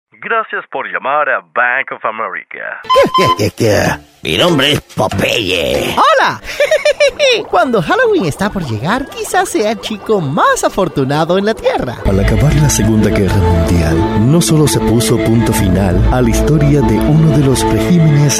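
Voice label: spanish male voice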